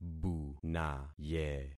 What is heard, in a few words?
"bunaye", concat syllables; Affixing experiment